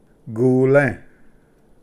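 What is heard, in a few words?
"gule", Cherokee; ᎫᎴ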